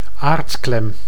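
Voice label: "aardklem", Dutch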